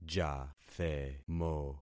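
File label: "jathemo", concatenated syllables; Affixing experiment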